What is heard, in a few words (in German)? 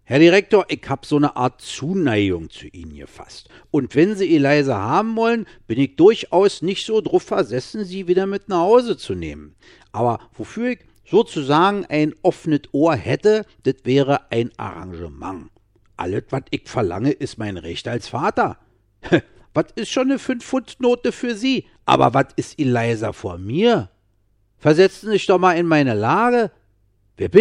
Sprachdemo Berlinerisch; Doolittle